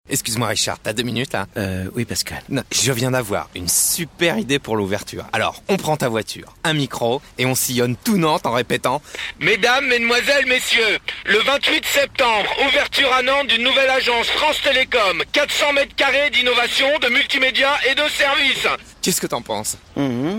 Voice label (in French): PUB RADIO